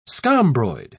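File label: "scombroid", British English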